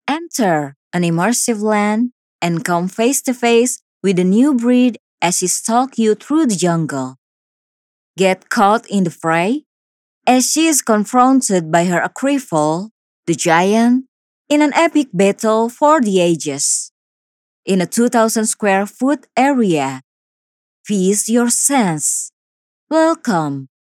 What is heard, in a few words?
Casual English